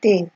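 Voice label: "tay", naija, female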